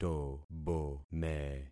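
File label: "tobome", concatenated syllables; Affixing experiment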